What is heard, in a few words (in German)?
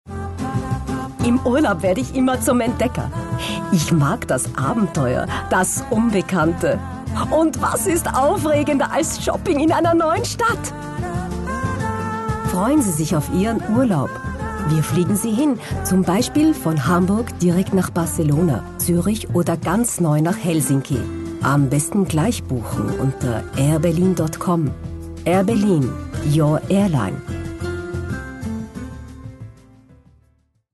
Werbung; AirBerlin